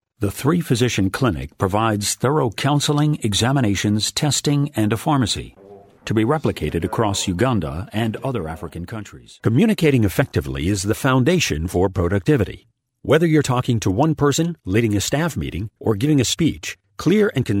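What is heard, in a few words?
narration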